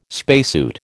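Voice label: "spacesuit", American English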